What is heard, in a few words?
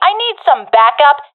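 request backup, professional female; vo; agents; Source Model Packs; Counter Strike Model Pack; CS2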